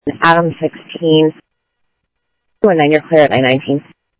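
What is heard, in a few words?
Phoenix police department, scanner; PPD-900 A PhoenixPD900Cact; ThompsonPeakHigh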